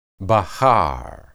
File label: "bahar", Hebrew